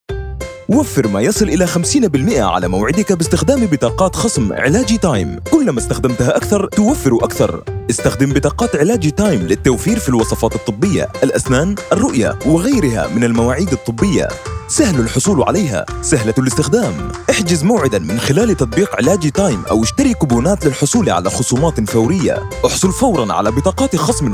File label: Explainer Video Standard Arabic